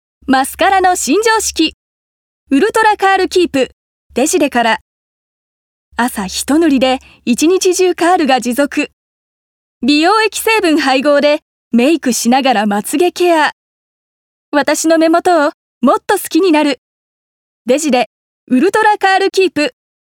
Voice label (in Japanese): 化粧品CM